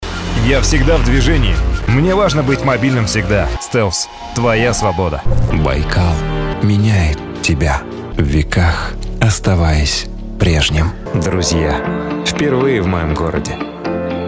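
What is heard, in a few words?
Russian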